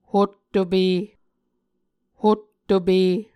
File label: "hottope", Muscogee